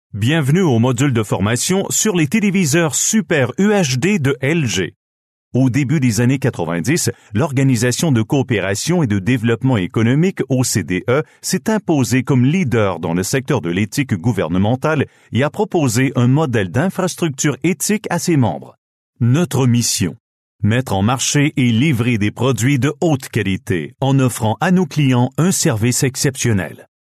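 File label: Canadian French, male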